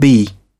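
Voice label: "bee", British English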